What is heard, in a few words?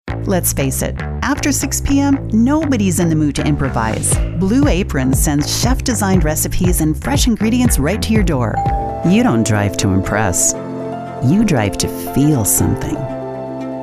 Commercial, warm and genuine female; mind-body dance